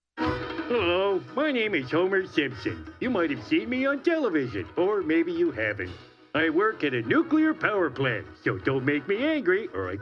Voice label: men animation; Large range of character voices, announcer.